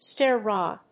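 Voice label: "stair rod", English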